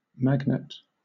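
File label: "magnet", British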